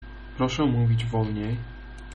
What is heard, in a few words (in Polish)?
slowly, pl